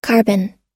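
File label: "migrant", US English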